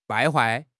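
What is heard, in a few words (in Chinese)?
"败坏", Chinese; HSK6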